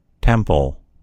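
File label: "temple", American English; gcompris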